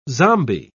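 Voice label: "zombi", British English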